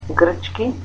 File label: "Greek" Macedonian